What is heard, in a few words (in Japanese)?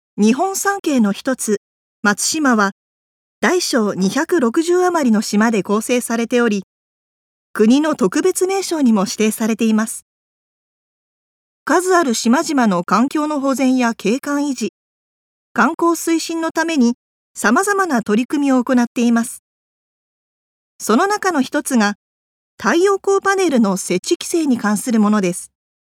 紀行ナレ